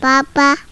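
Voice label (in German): "papa", German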